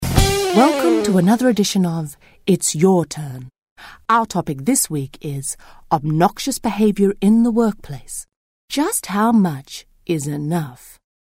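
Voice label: Australian elearning, englisch; sprecher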